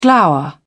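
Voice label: "glower", English, British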